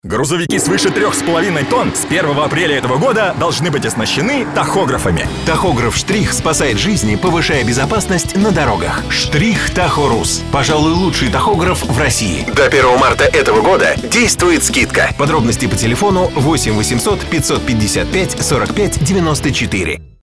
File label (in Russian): Рекламный ролик на авторадио